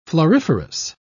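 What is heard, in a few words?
"floriferous", British English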